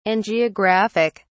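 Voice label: "angiographic", British English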